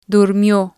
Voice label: "durmio" Spanish

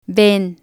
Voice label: "ven", Spanish

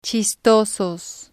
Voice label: "chistosos", spanish

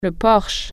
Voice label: porch, french

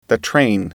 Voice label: "train", English

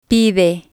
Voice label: "pide", spanish

